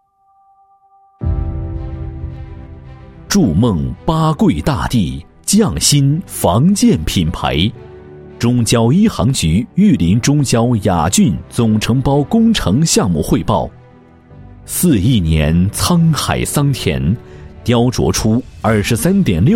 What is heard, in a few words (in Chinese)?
男-宣传片-浑厚大气; 中交雅郡宣传片脚本和策划大纲